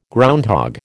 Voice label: "groundhog", American